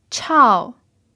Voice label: "chao4", chinese

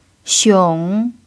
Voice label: "xiong3", chinese

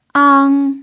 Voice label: "ang1", chinese

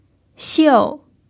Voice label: "xiu4", chinese

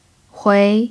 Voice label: "hui2", chinese

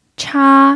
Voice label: "cha1", chinese

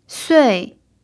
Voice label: "sui4", Chinese

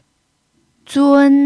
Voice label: "zun1", chinese

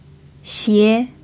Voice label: "xie2", chinese

